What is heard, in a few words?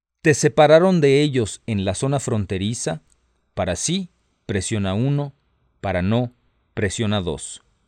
Spanish, hotline